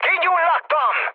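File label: Hungarian on fire mood high, speech; War Thunder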